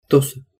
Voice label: "tosa", Spanish

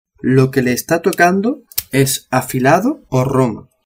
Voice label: "afilado", spanish

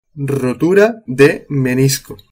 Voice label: "menisco", spanish

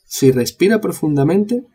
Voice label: "si respira", spanish

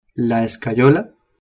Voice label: "escayola" spanish

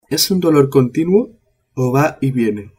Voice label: "continuo" spanish

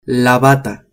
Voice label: "bata", spanish

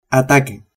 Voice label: "ataque" spanish

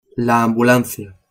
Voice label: "ambulancia", spanish

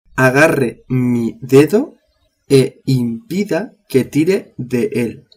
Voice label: "agarre mi dedo", spanish

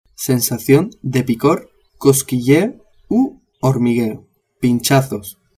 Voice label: "sensacion de picor", spanish

